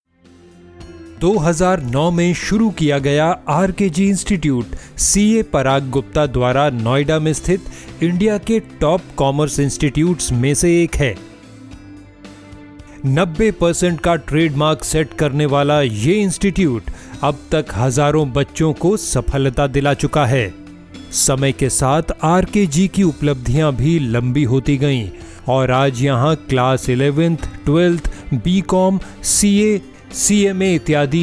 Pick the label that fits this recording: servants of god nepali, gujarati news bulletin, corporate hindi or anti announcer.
corporate hindi